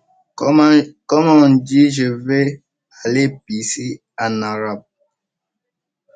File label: voice message